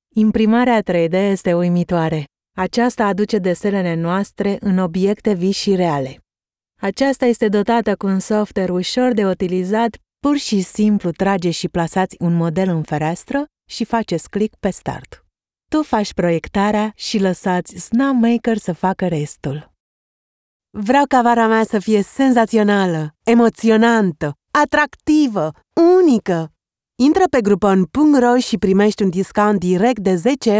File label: Romanian